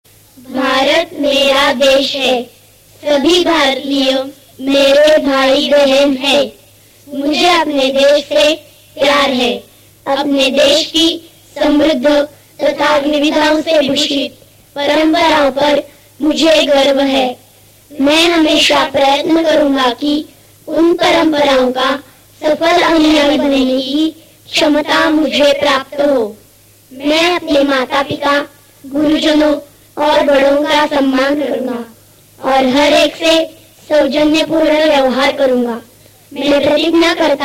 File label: HINDI